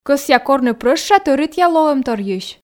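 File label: Komi sentences, female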